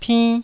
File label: "pinn1", Taiwanese